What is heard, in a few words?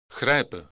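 "grijpen", Dutch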